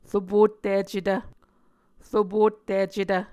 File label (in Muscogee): "ropotticetv", muscogee